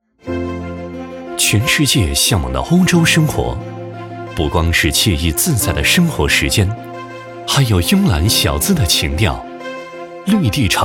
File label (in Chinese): 男 温馨广告; 绿地城